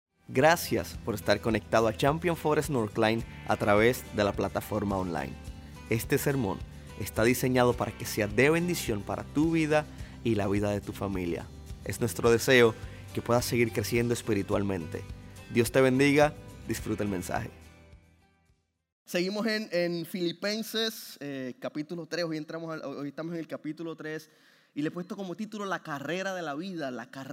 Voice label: Sermon Spanish